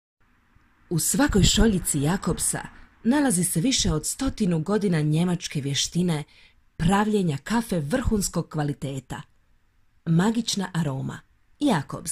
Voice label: female croatian bosnian compilation